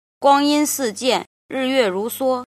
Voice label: "jin", Chinese